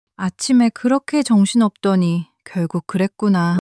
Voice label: sadness, monologue